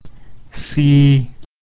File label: "xi1", Mandarin, first tone; west